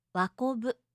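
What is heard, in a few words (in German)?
"wakobu", japanische Mädchennamen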